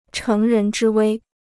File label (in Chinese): "乘人之危", Chinese